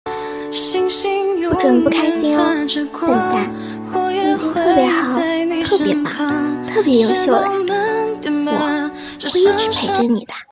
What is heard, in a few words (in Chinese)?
少女音